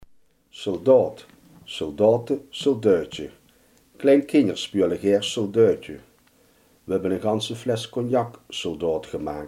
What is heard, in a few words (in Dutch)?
"soldaat", Limburgs